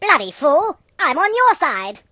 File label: Sameteam, British